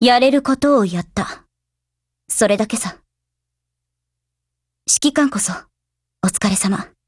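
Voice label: WIN Japanese, Voice; GF Alarm; AN94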